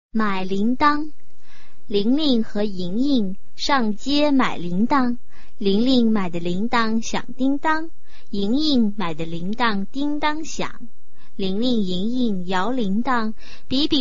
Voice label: chinese tongue twister